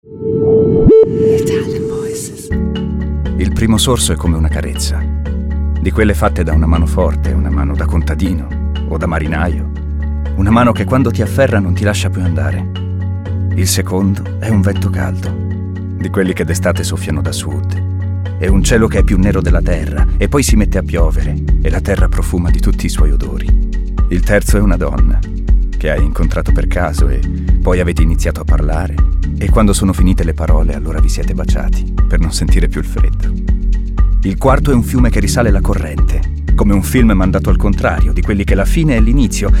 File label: ITALIAN VOICES, male voices; Naked Reel